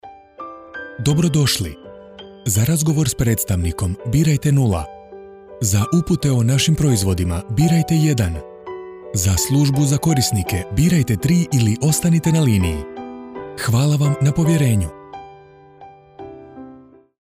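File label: croatian male interactive voice response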